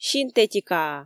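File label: "синтетикаа", Komi, female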